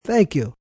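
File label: "Thank You" male; Etiquette; Voice Box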